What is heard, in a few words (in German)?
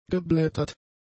"geblättert", German; adjectives